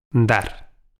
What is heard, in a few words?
"dar", pron